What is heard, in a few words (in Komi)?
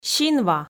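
"синва", Komi, female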